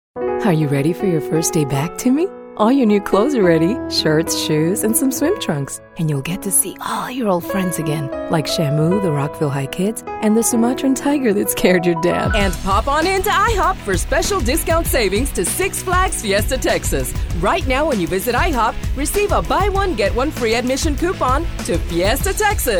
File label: English, english females